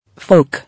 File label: "Folk", English